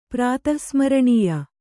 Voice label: "ಪ್ರಾತಃಸ್ಮರಣೀಯ", kannada